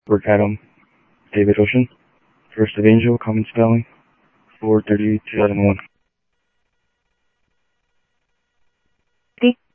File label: Sheriff-WestCnty, scanner audio; MCSO - WEST; ThompsonPeakScot